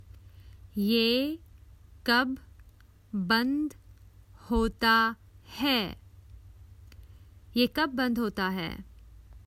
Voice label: Common Hindi Phrases for travel When does it close